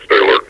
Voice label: stay alert, combine soldier; svencoop; hl2 npc